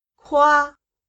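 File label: "夸" Chinese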